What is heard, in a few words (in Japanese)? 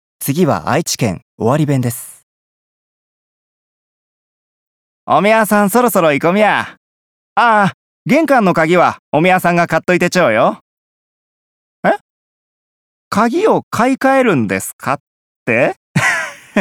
方言